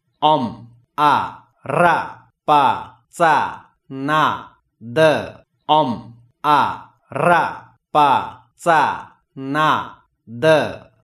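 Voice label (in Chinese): 文殊心咒（親誦）; Siddhattha Gotama - 悉達多·喬達摩; 經咒陀羅尼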